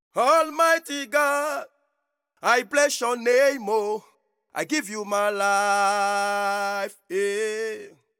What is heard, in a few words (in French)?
voix homme